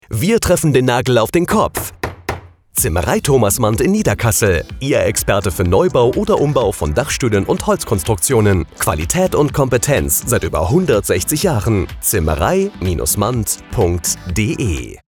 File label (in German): Funkspot; Zimmerei Thomas Mandt